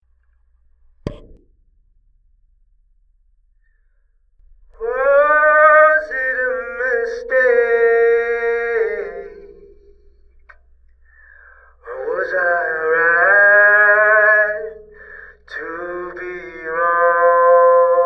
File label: Vox, Spring Reverb Microphone; 3D-Printed Spring Reverb Microphone